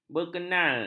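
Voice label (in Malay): "berkenal", Malay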